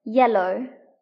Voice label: "yellow", English; describing objects; accessible epaath; grade2

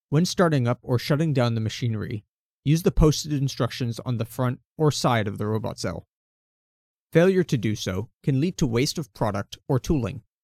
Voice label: Startup and shutdown, Voiceover

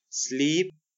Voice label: "sleep", English; ee ea words; epaath; grade2

